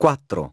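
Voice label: "four", English; iOS 4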